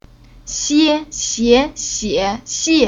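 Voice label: "Xie", Chinese syllable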